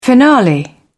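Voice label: "finale", British English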